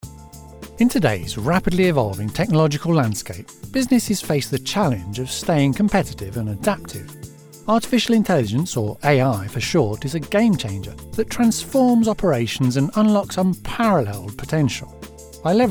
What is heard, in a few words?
Corporate AI, voice-over; Genuine British Received pronunciation, cockney and Scottish